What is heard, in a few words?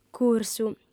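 "cursu", female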